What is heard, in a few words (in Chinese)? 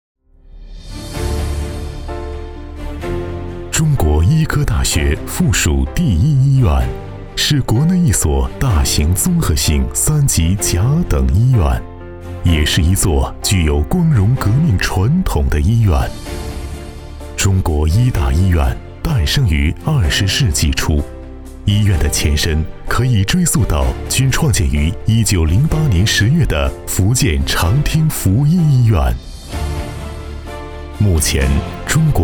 男 宣传片; 中国医科大学